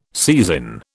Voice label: "seisin", British

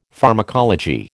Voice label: "pharmacology", American

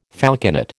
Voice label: "falconet", American

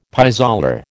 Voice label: "pis aller", American

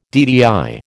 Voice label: "DTI", American

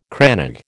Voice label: "crannog", American

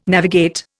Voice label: "navigate", Indian

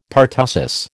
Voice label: "pertussis", American

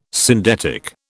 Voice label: "syndetic", British

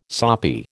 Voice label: "soppy", American

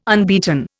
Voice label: "unbeaten", Indian

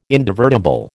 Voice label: "indivertible", American

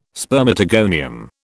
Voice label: "spermatogonium", British

